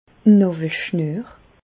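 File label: "nawelschnuer", Alsatian; medecine; cordon ombilical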